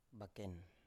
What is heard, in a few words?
"baken", Biak